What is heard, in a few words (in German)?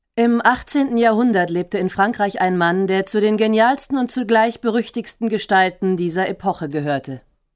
Neutral